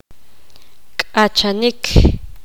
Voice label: poqomam vivir

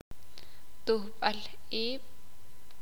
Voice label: "Escuela", Sakapulteko

